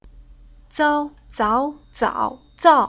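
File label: "Zao", chinese syllable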